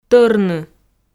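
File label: "тӧрны", Komi, female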